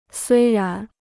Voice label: "虽然", Chinese

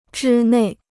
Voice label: "之内", Chinese

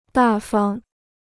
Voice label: "大方", Chinese

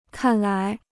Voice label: "看来", Chinese

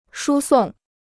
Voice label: "输送", Chinese

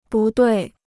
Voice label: "不对", Chinese

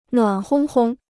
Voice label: "暖烘烘", Chinese

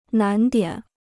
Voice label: "难点", Chinese

